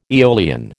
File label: "eolian", American